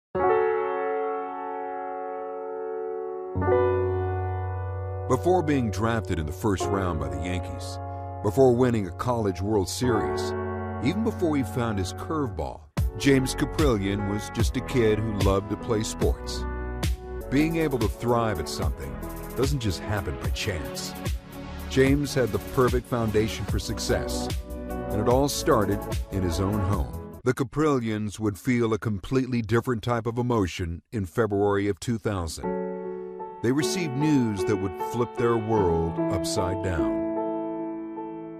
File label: english american others